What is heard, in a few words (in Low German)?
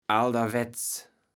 "alderwetts", kaevels platt